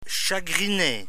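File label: "chagriner", French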